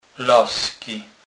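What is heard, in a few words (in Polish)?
"lasky", Kurpie dialect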